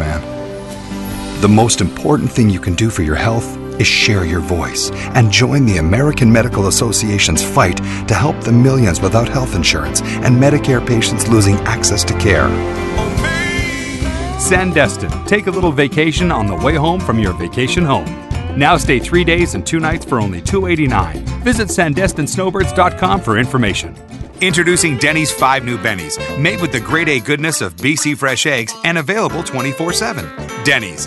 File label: Comm, real people male